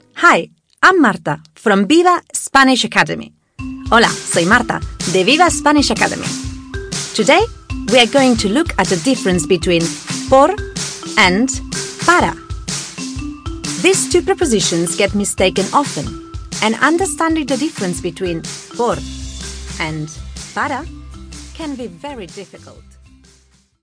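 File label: Catalan, English accent; GoLocalise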